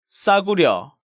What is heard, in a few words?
"Ssaguryeo", Korean